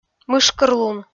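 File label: "мышкырлун", Komi-Zyrian, female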